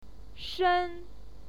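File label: "shen", Mandarin Chinese, fourth tone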